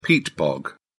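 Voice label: "peat bog", British English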